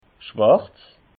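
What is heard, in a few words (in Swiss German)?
"schwarz", Alsatian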